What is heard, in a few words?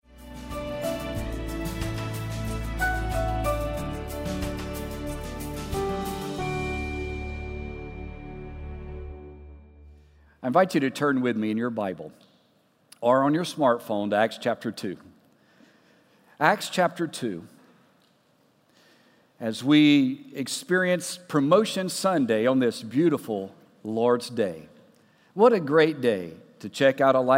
Sermon English